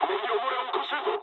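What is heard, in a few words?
Japanese tank damaged mood low; War Thunder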